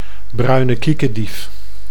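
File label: "bruine kiekendief", Dutch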